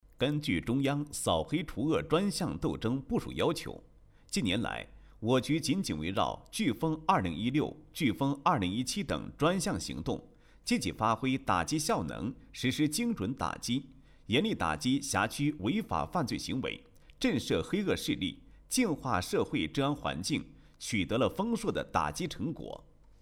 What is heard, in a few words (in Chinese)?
男-专题片; 肇庆; 扫黑除恶专项斗争专题片典型案例